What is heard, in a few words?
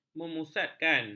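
"memusatkan", Malay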